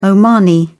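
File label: "omani", English, British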